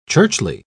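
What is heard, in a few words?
"churchly", British English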